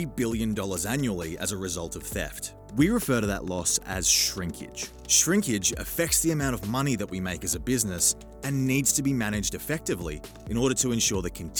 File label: E-Learning; Demo Reel 2023